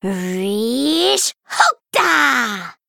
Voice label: Female Gnome Hero Power; Rin